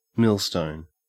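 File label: "millstone", Australian English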